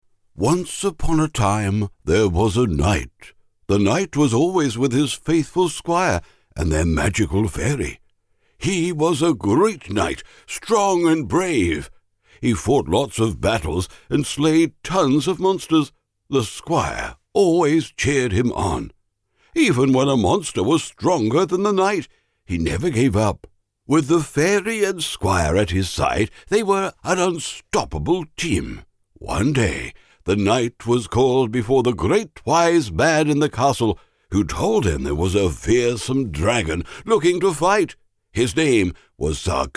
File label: storyteller character